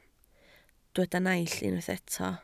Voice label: "naill", Welsh